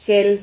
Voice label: "jel", Silabas

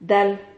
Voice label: "dal", Silabas

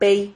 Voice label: "vei", Silabas

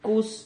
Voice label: "cuz", Silabas

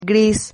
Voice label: "gris", Silabas

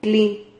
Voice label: "cli", Silabas

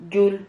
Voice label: "yul", Silabas

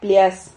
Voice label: "plias", Silabas

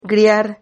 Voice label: "griar", Silabas

